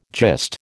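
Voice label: "gist", American English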